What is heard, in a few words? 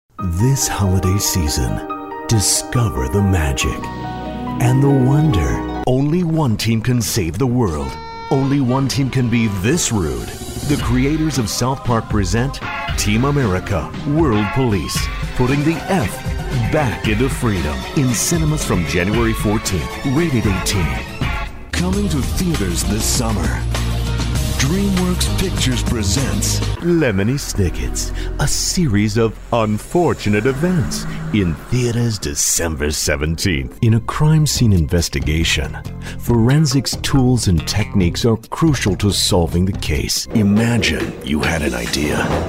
american movie voice